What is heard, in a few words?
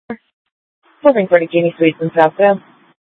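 Scottsdale PD North Dispatch; Nth Dispatch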